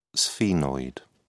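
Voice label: "sphenoid", British English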